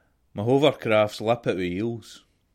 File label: hovercraft scots